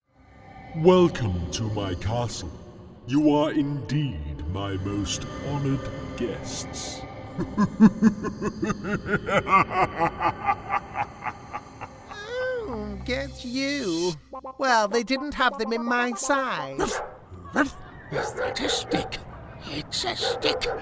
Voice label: English British animation